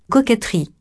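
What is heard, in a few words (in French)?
"coquetterie" French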